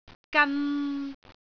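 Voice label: "gan3", Cantonese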